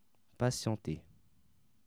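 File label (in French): "patienter" French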